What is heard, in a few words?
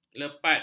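"lepat", Malay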